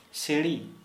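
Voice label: Santali, male, ladder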